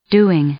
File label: "doing", English; verb